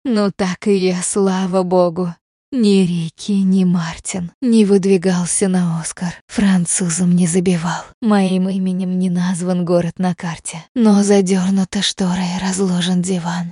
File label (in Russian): пример голоса нейросети